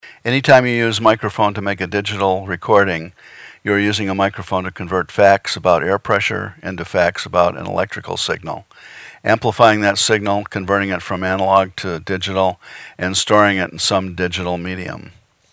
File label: vocal enhancer